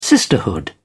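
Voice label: "sisterhood", British English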